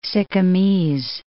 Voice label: "Sikkimese", English